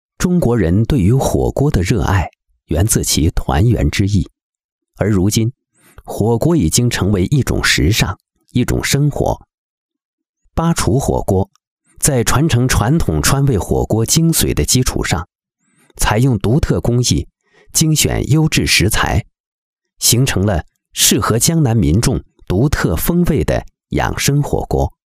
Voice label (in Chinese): 男 火锅; 巴厨火锅